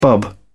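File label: "bub", English, British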